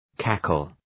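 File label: "cackle", English; {‘kækəl}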